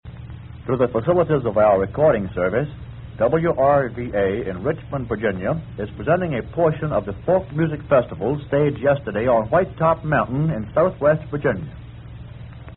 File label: announcements announcer